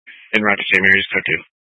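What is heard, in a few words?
Fire Dispatch, Scanner audio recording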